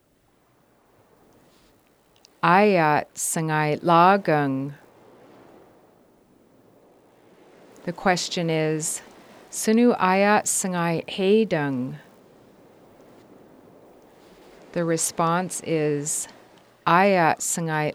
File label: Haida Good, sangáay 'láagang (the weather is good); Haida 7 Good